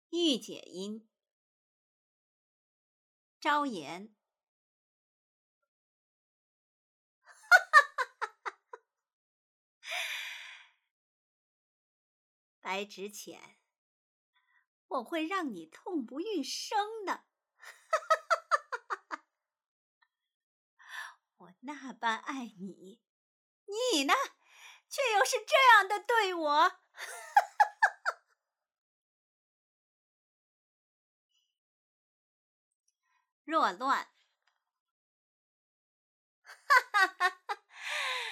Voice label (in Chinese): 御姐音